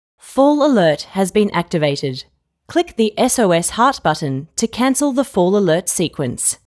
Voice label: English